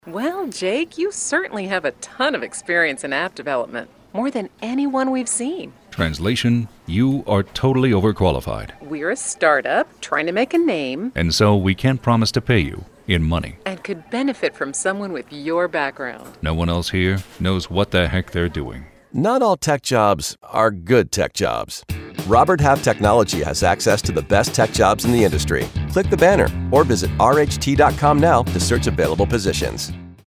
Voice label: Radio; Robert Half Technology OverQualified